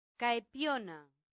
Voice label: "caepiona", latin; santillana